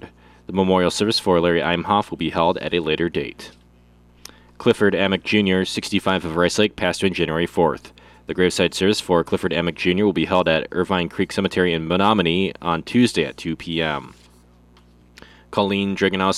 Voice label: Funeral Announcements